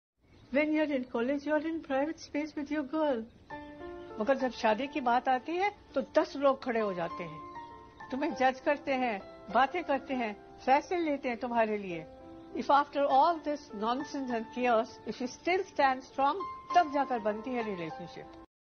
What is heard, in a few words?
Dialogue ringtone hindi ringtone